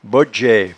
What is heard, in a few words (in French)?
"bodgeaie", patois jura